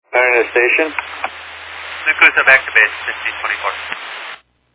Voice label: Fire Dispatch